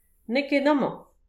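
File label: "neo ket aman", Breton; petit precis de prononciation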